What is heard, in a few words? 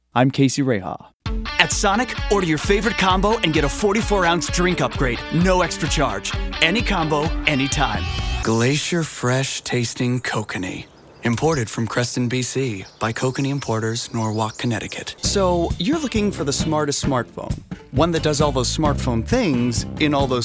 vo actor singer commercial